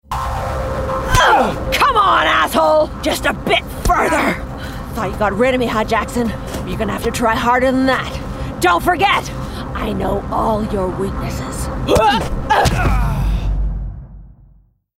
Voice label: Gaming Action Character